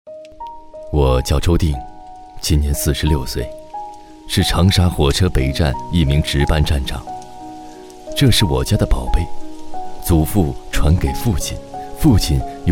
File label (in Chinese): 中文自述旁白